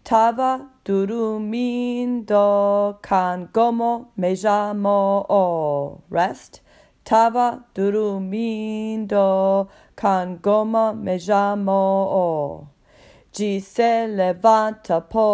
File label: Cangoma Pronunciation in Rhythm